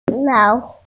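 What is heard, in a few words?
"lao3hu3", Mandarin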